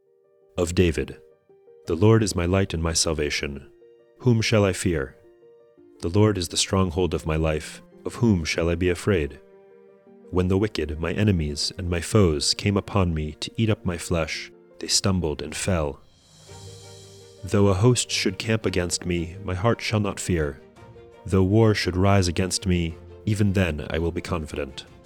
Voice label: poetry and prayer psalm english